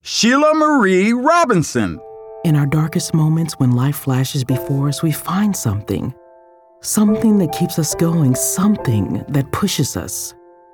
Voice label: NARRATION VO